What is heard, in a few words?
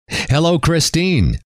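"hello", male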